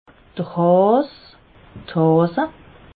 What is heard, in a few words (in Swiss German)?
"haas", alsace